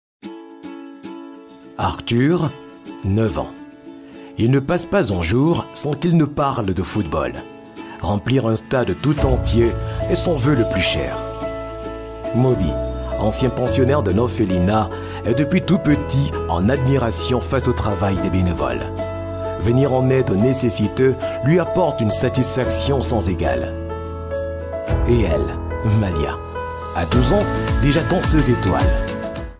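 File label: French African male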